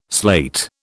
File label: "sleight", British